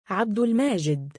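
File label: male "3abdulmaajid", Arabic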